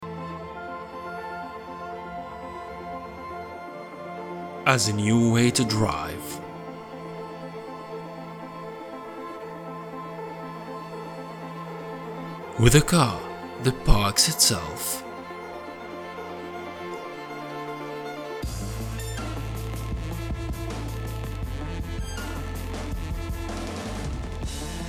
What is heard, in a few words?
Commercial; Nissan